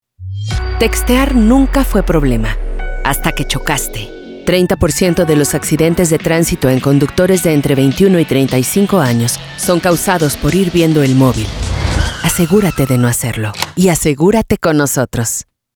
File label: Insurance Corporate Determined Awareness